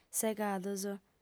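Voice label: "segados", female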